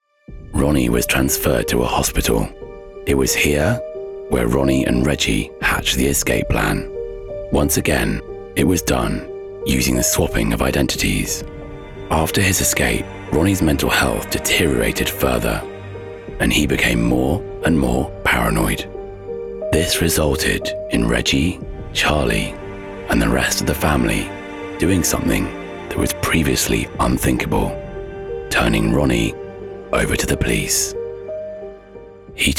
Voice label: Narrative

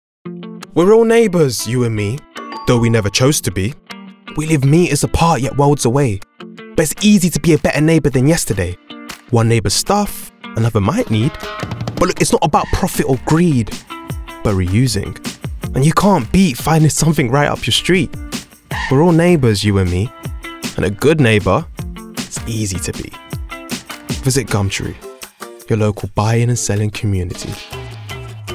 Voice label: Commercial

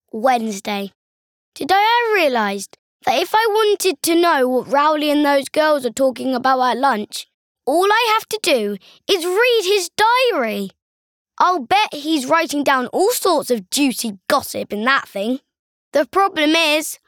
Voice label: Narrative

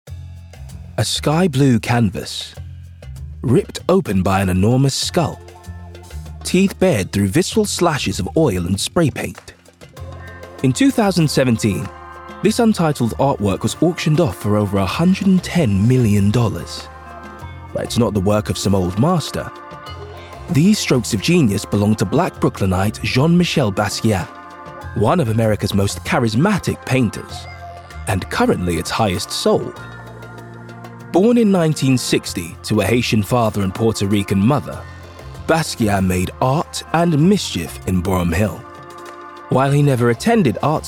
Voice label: Documentary

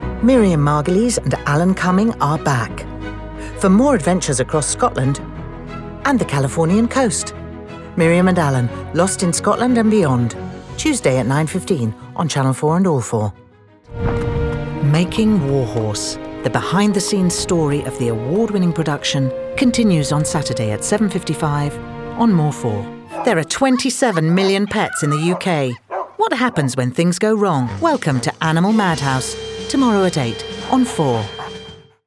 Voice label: Promo

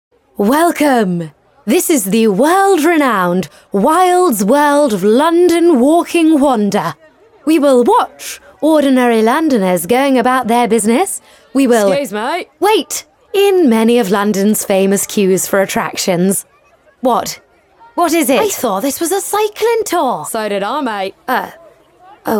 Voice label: Accent

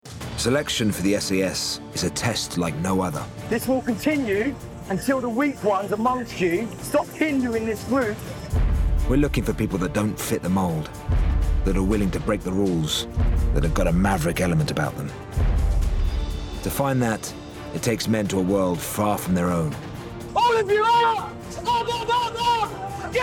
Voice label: Documentary

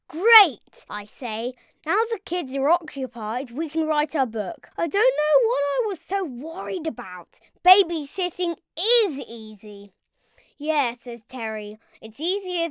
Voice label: Narrative

